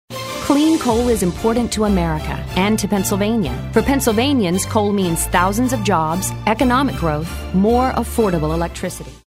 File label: authoritative